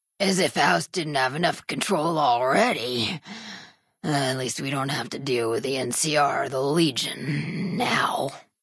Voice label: female generic ghoul dialogue hello